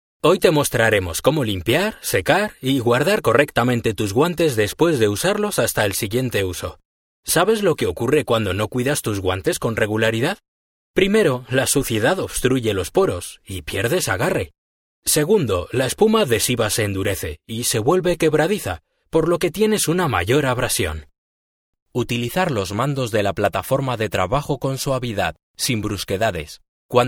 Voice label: male spanish castilian e learning compilation

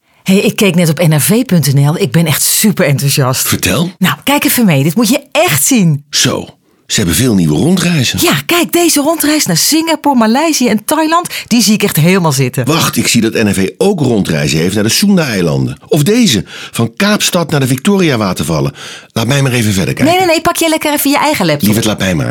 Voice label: female dutch radio commercial; nrv

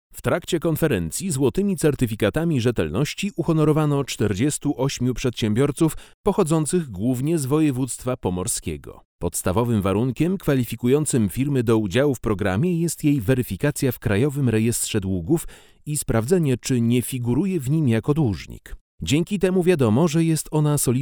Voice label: male polish tv documentary

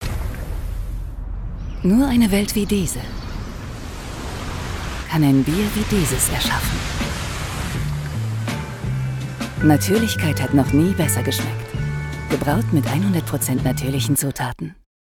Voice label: female German TV commercial; corona

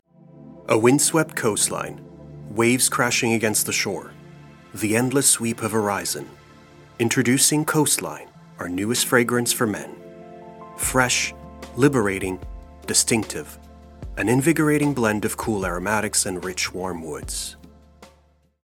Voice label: male english canadian; canadian accent; coast

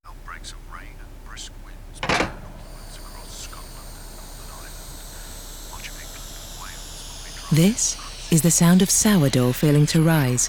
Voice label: female english and greek northern accent; voices; the guardian radio commerc

